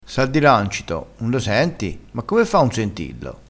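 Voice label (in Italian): "rancito", vocabolario dialettale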